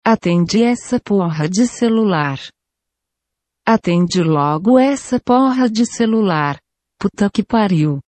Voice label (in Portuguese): atende voz do google; atende o celular voz do google